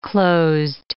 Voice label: "closed", English